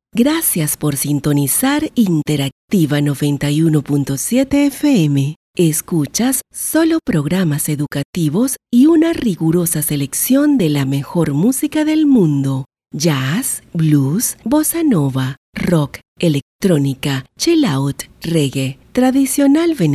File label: Radio-Tv Imaging